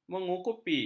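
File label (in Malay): "mengukupi", Malay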